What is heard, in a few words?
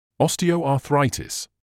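"osteoarthritis", British English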